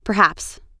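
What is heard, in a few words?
"perhaps", US English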